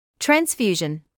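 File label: "transfusion" English, British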